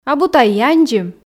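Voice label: "абу тай яндзим", Komi, female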